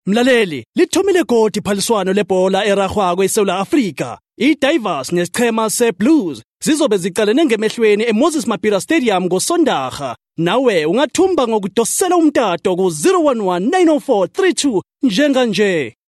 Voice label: Ndebele male

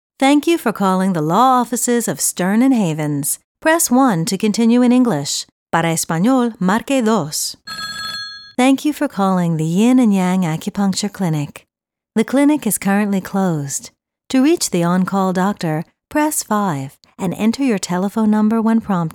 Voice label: IVR Voicemail

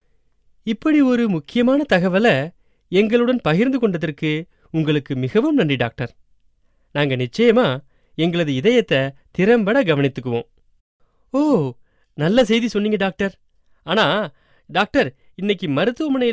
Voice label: Tamil narrative

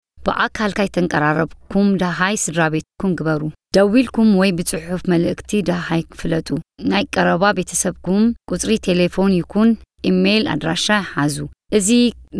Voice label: Tigrinya

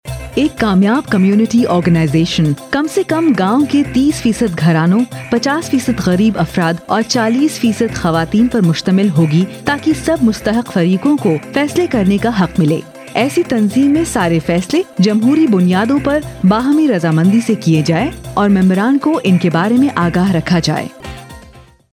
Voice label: Urdu

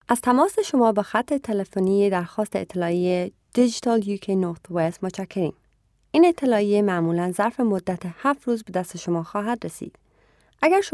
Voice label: Farsi

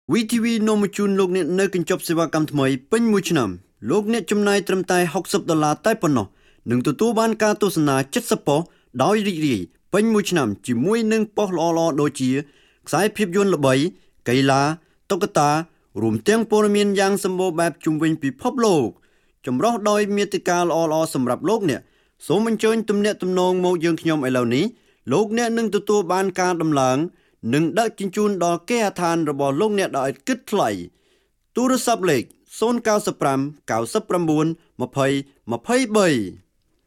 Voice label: Khmer spot

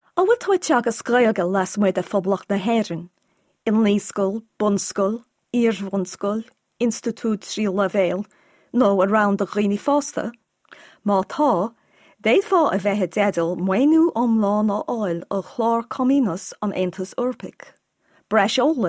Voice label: Gaelic

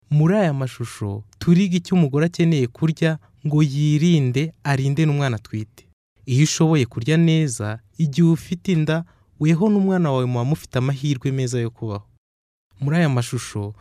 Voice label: KINYARAWANDA

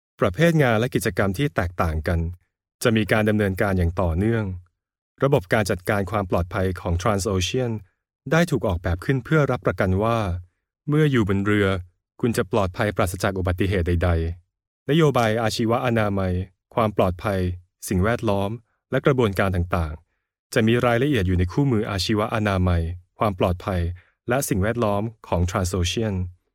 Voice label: Thai

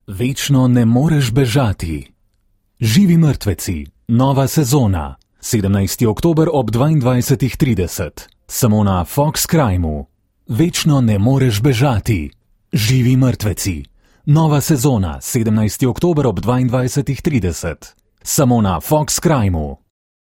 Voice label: Slovenian

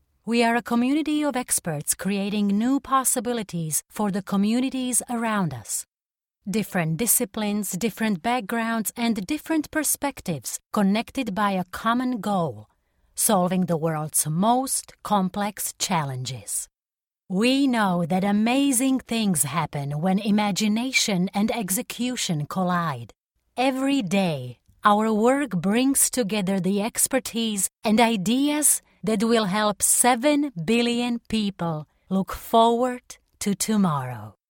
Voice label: English

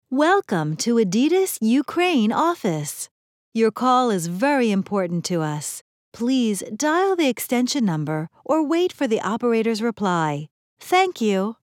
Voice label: IVR; Adidas Ukraine

